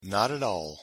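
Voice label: "not at all", English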